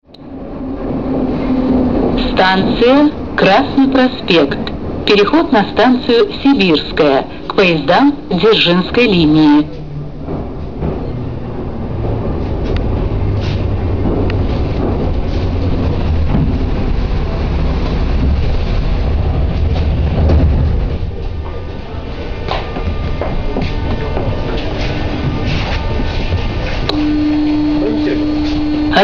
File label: female